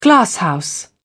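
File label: "glasshouse", British English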